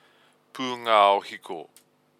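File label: "pungao hiko", Māori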